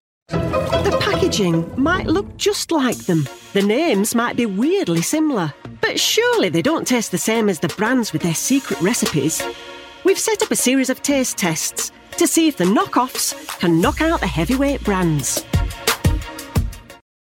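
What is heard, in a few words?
tv own brands vs superbrands doc, female voiceover; ch5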